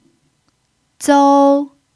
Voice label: "zou1", Mandarin pinyin